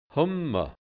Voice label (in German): "Humma", Hianzisch Mundart; m Hunger